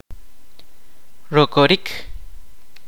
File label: "narrow", Kaqchikel